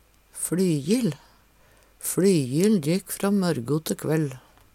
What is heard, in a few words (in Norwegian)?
"flyjill", Numedalsmål